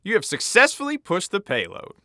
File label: Announcer Success Payload Push